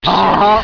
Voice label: uh huh backwards